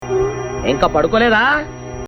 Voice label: Telugu Comedy Punch Dailouges